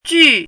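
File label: "jù", Chinese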